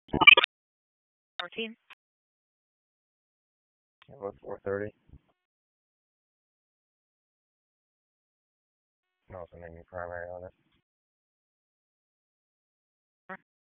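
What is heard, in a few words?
Raleigh Police Southeast District Dispatch, p25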